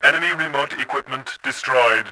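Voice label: enemy remotes, voice, Bot; Tribes2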